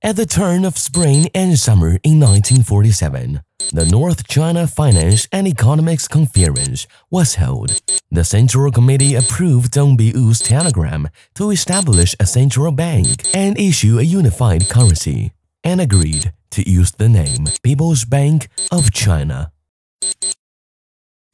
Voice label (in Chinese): 男 英文; 人民银行